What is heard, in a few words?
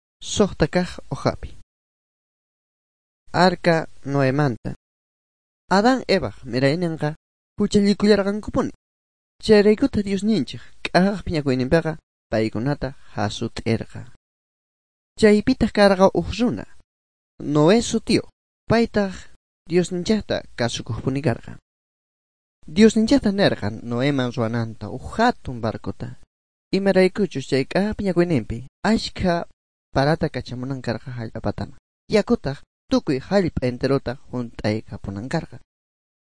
good news quechua bolivian sucre; bible